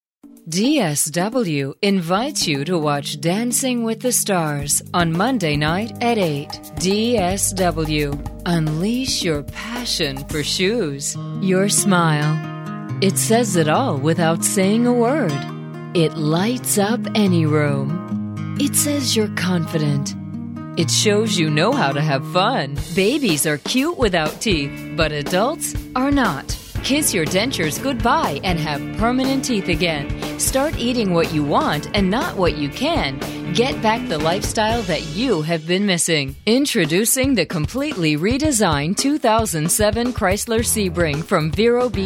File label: Commercial